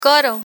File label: "coro", Spanish; spanish vocabulary; learn spanish